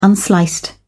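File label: "unsliced", British English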